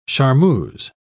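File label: "charmeuse", British English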